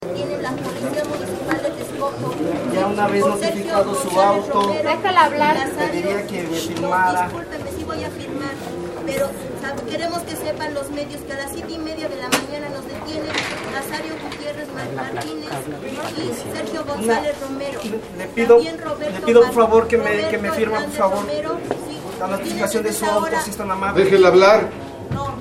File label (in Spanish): mujer; presas del penal de santiaguito